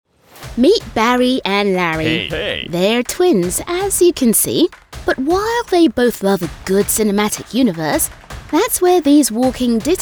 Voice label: explainer corporate